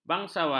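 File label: "bangsawan", Malay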